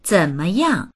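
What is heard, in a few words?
"zen3meyang4", Mandarin Chinese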